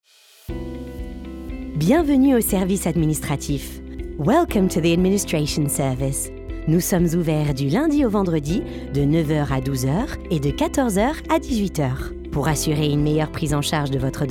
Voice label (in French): Message de dissuasion, telephone directory (English, French); Valab